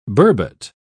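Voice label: "burbot", British English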